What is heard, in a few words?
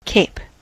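"cape", US English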